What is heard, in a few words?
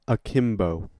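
"akimbo" US English; pronunciations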